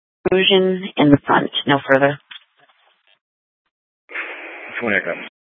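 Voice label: Police Department North Dispatch, scanner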